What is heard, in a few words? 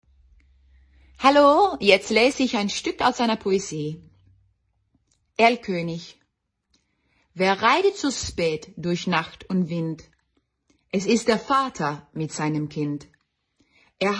GB Reading